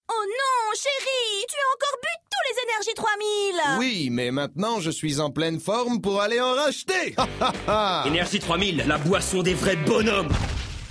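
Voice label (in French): spot, spots radio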